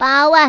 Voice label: "8 wan", 女, 麻将; 欢乐麻将

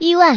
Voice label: "1 wan", 女, 麻将; 欢乐麻将

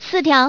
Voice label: "4tiao", 麻将, 女; 欢乐麻将

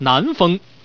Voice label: "nan", 男, 麻将; 欢乐麻将

